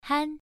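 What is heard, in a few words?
"han", Mandarin Chinese, first tone, pronunciation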